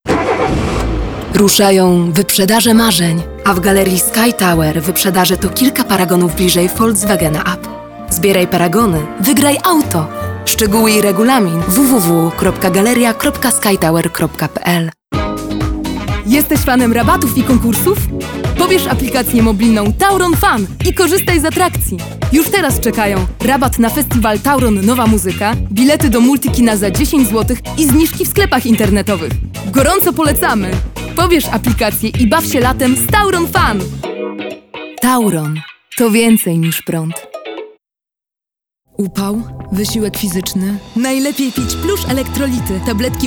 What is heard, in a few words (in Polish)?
Demo reklamy, aktorka